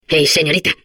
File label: Space core babble, es; Hey, señorita.